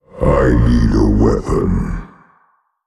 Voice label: Male ERROR NEED WEAPON; Old God